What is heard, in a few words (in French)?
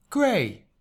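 "gris", French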